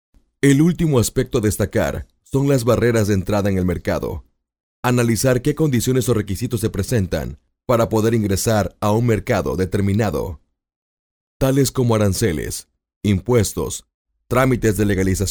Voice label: locutor mexico mexican voiceover

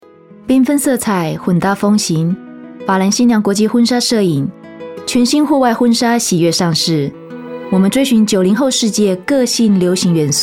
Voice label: locutora china chinese voiceover

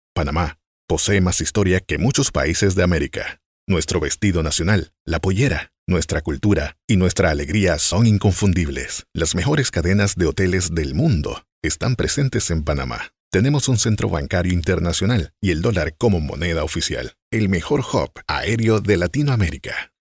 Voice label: locutor panama panamanian voiceover

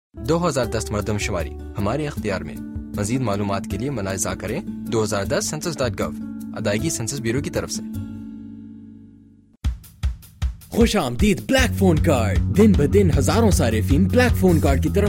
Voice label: urdu voiceovers locutores urdu

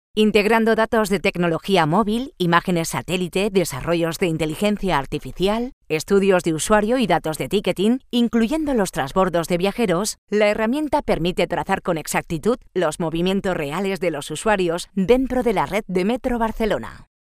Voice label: locutora spanish voiceover

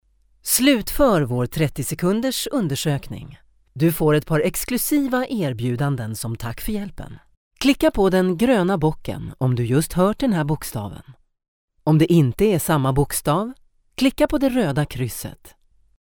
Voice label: locutora sueca swedish voiceover

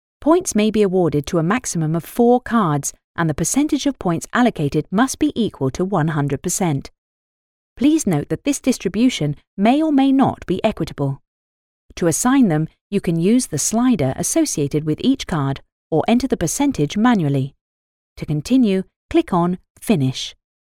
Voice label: locutora inglesa english voiceover; cartoon dubbing

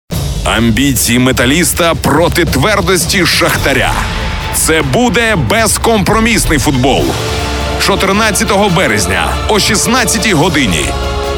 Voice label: locutor ucrania ukrainian male voiceover; Ukrainian male voice talent

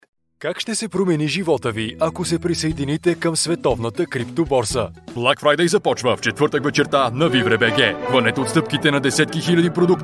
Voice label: bulgarian voiceover locutor bulgaria; locutor de Bulgaria

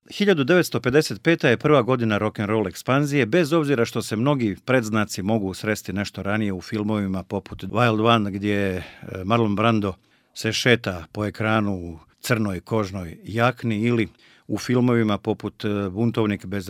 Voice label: locutor bosnio bosnian voiceover

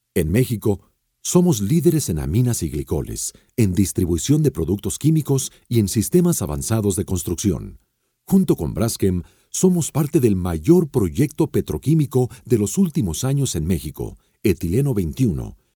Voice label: locutor mexicano mexican voiceover

